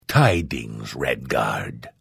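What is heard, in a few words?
Hello, Vo; Morrowind GOTY GOG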